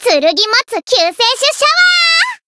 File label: Voice heal, jp; thillelille